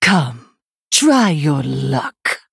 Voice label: Female Human Play

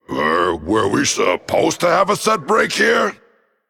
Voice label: Male Undead Idle

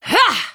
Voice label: Female Blood Elf Attack

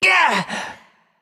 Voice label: Male Naga Attack

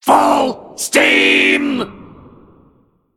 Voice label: Male Mech Attack

